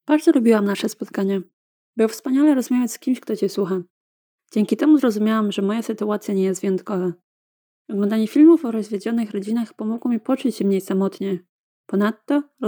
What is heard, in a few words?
Polish Quote